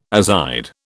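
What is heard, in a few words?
"azide", British English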